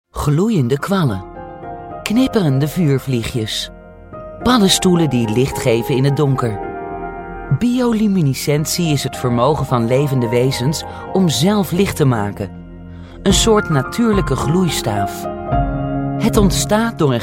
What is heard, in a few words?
Dutch female explainer